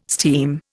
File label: "steam", Indian